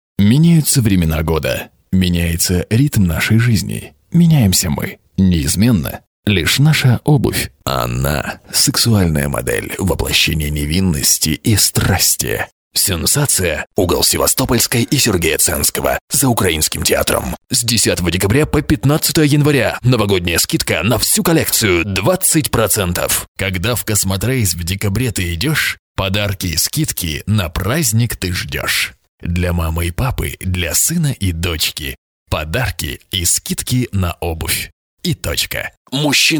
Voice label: russian